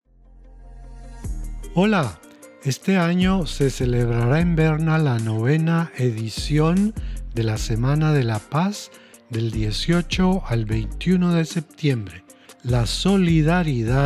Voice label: Spanisch

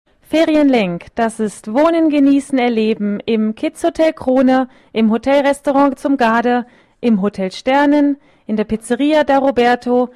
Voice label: Werbespot; Ferienlenk; JUSKILA 2014

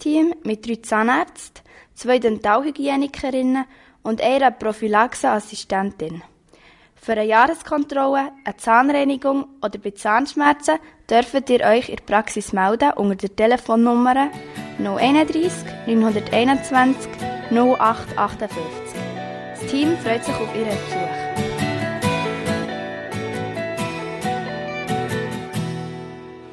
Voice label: Jingle Zahnarztpraxis; Bolligen

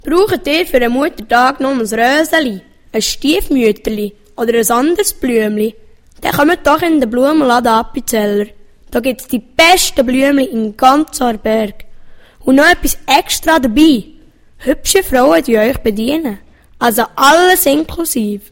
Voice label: Jingle Blumenladen; Aarberg

